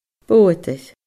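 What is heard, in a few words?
"boghadair", Scottish Gaelic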